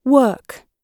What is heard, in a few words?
work, gb